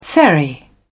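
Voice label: "ferry", British English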